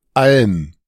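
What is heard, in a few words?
"alm", German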